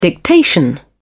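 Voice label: "dictation", British English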